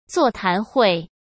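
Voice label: "座谈会" Mandarin Chinese; chinese-flashcards; hsk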